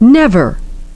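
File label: "never", English pronunciation; ˡnev.əʳ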